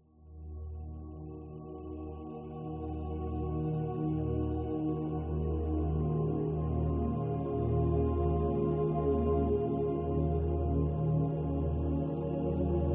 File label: Sleep Down Talk Guided Meditation